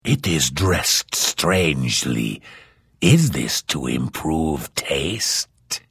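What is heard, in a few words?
Hello, Vo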